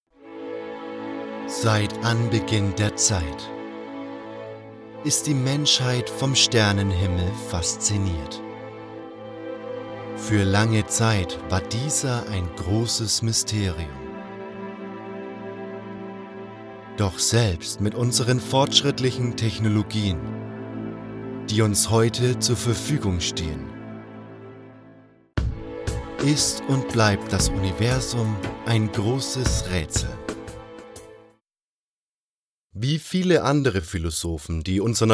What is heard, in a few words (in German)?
Narrative German